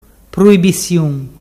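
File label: "pruibisiun", Ligurian dialect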